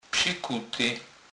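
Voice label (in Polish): "psikuty", Kurpie dialect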